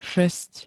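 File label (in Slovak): "šesť", Slovak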